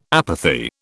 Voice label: "apathy", British

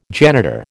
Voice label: "janitor", American

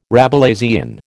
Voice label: "Rabelaisian", American

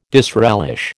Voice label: "disrelish", American

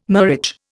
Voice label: "murage", Indian

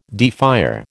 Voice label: "defier", American

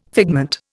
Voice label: "figment", Indian

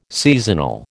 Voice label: "seasonal", American

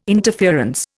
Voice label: "interference", Indian

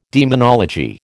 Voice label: "demonology", American

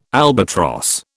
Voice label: "albatross", British

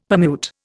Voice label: "permute", Indian; Dictionary

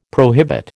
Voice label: "prohibit", American

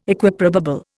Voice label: "Equiprobable", Indian

